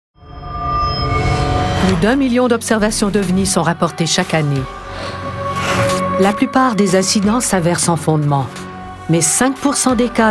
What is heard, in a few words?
narration